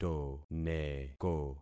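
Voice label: "toneko", concatenated syllables; Affixing experiment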